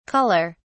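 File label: "culler", British English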